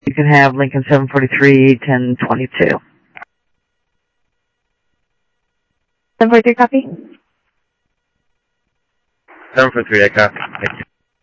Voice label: South Scottsdale Sheriff, scanner audio

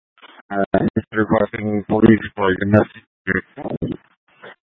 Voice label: Fire Dispatch

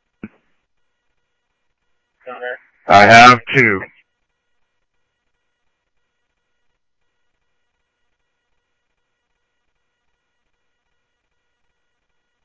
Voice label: scanner audio trunk

